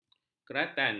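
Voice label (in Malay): "keratan", Malay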